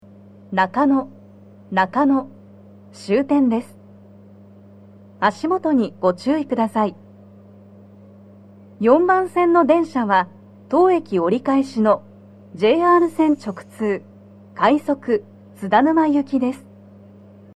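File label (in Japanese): 到着放送, 女声; 放送は「快速 津田沼行」です。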